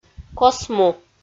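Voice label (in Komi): "косму", Komi-Zyrian, female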